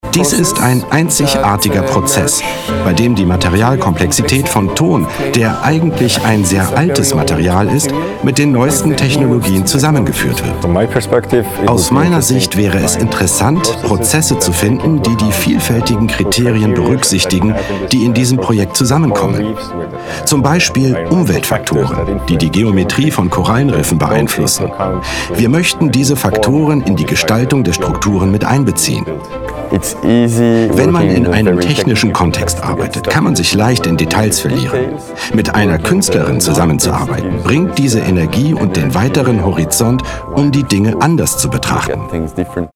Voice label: voice over; eth; Muttersprache Deutsch, Französisch